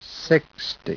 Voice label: SPEECH ENGLISH